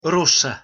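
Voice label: "rossa", Italian pronunciation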